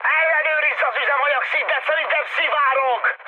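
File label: Hungarian tank damaged mood high, speech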